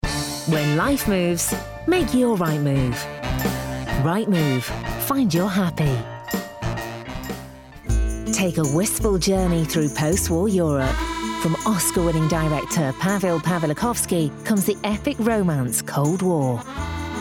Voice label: Commercials Montage